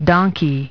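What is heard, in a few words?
"donkey", English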